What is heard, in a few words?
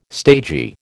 "stagy", American